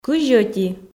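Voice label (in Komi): "кызь ӧти", Komi, female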